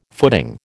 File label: "footing", American